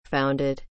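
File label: "founded", English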